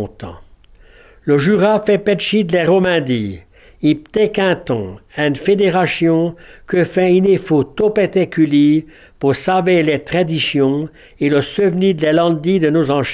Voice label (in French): patois; yverdon